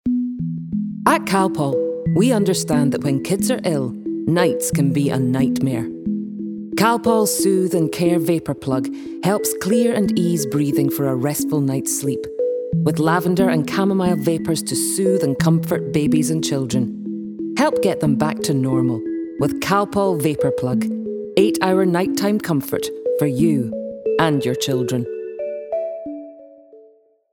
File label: Scottish; Calpol